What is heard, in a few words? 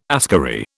"askari", British